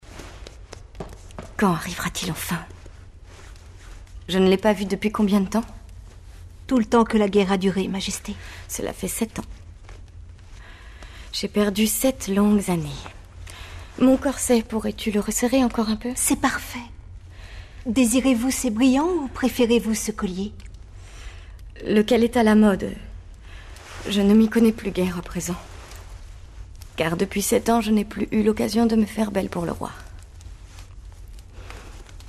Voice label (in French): DOUBLAGE; Friedrich II